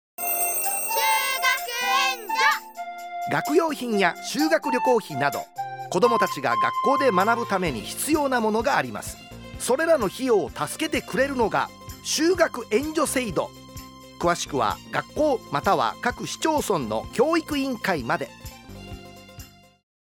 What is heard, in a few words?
radio